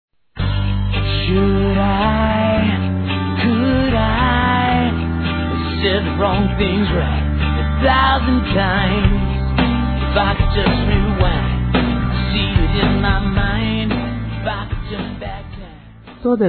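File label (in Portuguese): GERAL, female, Dia Dos namorados; Telemensagens 2017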